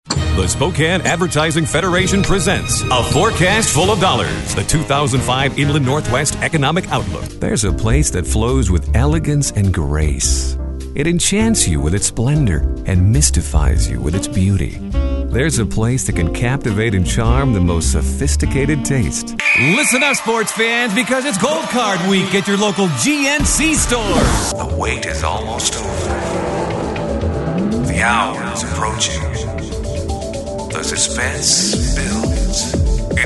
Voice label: Commercial Male; commercial voiceover

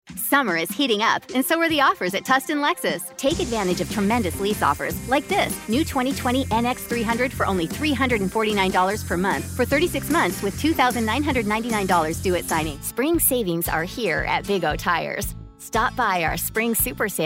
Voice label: Automotive Commercial

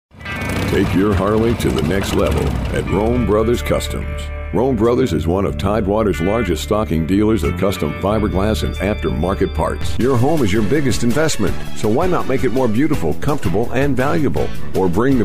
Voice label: Commercial, commercial voiceover

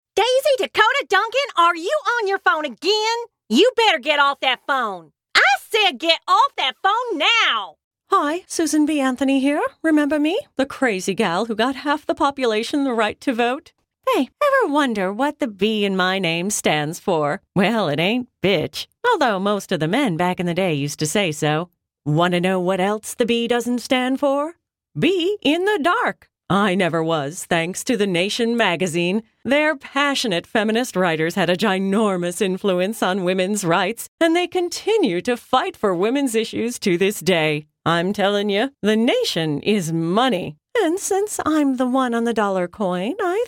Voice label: Characters, Commercial Voiceover, Character

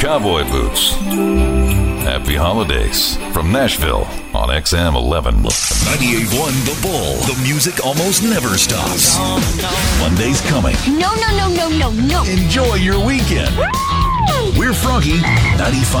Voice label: Imaging Country Male

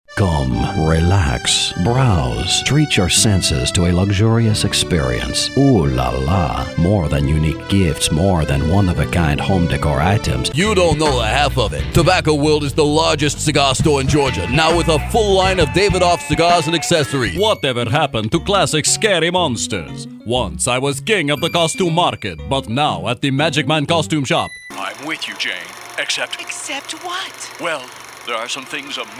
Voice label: Character Male; Friendly, Baritone, Calming, Upbeat, Storyteller, Sincere

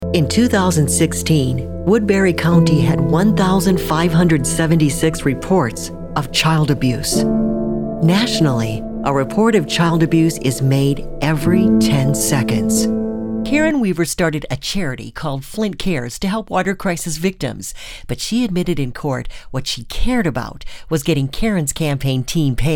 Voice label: political, commercial voiceover

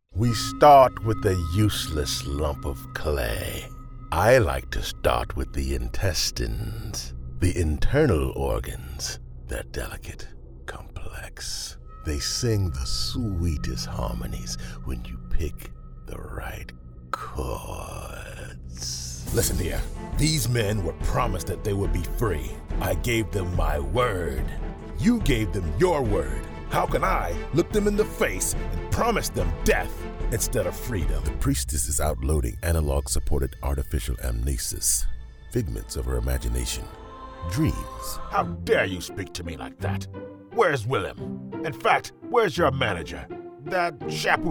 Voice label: Character Male

